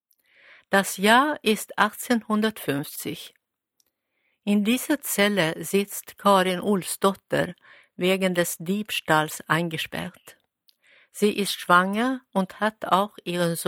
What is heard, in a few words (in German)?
cell, audioguider